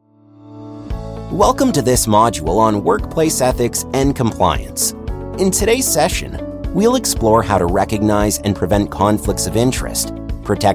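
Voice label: elearning, Englisch - Nordamerika, Männlich Junger Erwachsene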